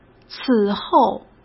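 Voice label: "此后", Mandarin Chinese; hsk; chinese flashcards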